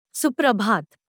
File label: Hindi Good morning; Greetings